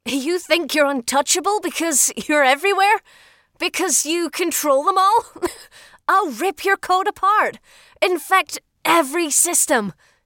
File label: american hacker hero, Youthful Scottish Female Voice Actor